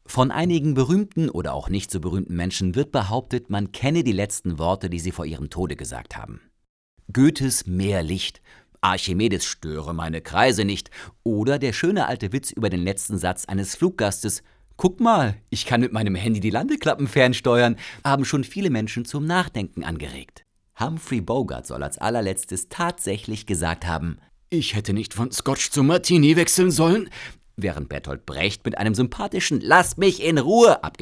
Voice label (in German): erzaehlung aufgeweckt; Muttersprache Deutsch